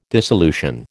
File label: "dissolution", American